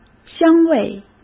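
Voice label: "香味", Mandarin Chinese; chinese-flashcards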